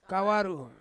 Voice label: "kawaru", Ansus; bean